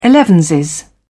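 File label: "elevenses", British English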